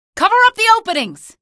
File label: alert antlions, female; video games; npc; source engine; episodic; episode 1